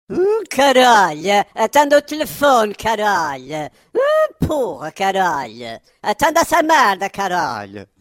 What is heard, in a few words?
angry portuguese